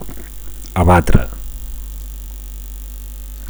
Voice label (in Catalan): "abatre", Catalan; to knock down; to shoot down